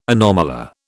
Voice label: "anomalure", British